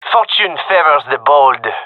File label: round won, gendermerie male